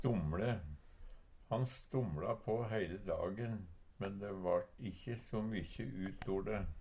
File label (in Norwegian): stomLe, Numedalsmål